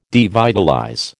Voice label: "devitalize", American